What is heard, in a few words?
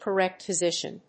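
"correct position", English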